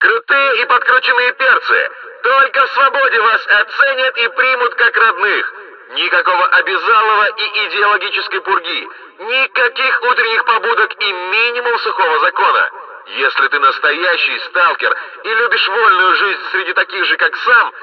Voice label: megaphone